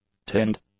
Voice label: "tinned", English; adjectives

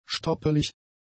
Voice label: "stoppelig", German; adjectives

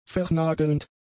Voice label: "vernagelnd", German; adjectives

